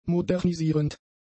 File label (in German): "modernisierend", German; adjectives